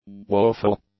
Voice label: "woeful", english; adjectives